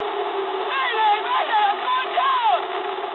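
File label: aircraft destroyed mood high, speech English; War Thunder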